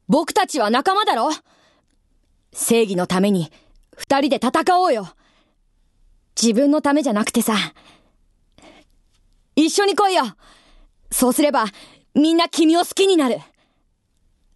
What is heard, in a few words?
female voice; セリフ